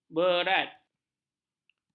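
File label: "berat", Malay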